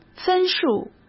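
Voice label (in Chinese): "分数", Mandarin Chinese; chinese-flashcards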